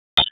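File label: Police Southeast District Dispatch; Raleigh; wake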